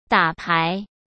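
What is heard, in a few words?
"打牌", Mandarin Chinese; hsk; chinese-flashcards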